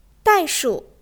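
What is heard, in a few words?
"dai4shu3", Chinese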